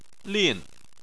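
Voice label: "lin", Chinese, fourth tone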